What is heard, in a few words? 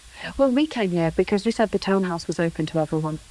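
voice acting, es, female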